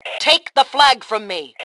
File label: Flag Take, Female; T2 Female 02